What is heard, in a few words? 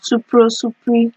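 "supro supri" Nigerian Pidgin, female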